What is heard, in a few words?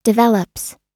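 "develops", US English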